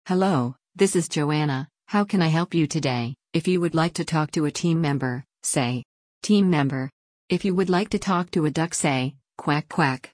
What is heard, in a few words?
Talk Duck